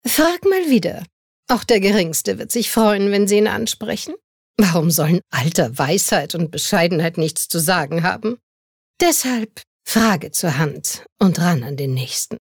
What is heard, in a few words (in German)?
Werbung Ueberheblich